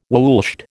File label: "woolshed", American